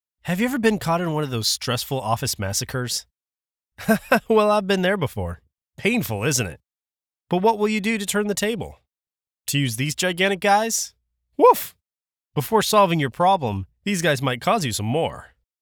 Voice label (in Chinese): 按摩器 自然流畅